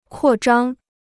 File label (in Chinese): "扩张", Chinese